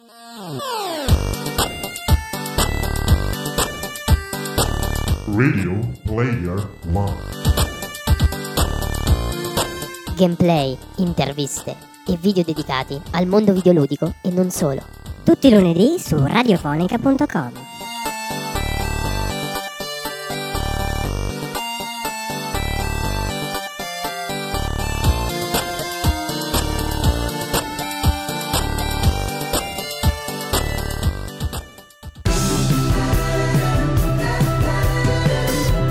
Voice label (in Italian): intervista; attore e doppiatore